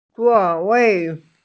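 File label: "zuo4wei4", Mandarin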